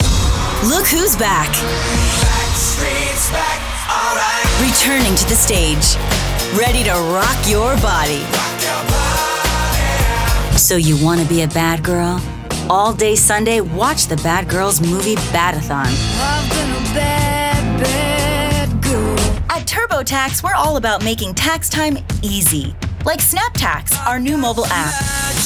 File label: Voiceover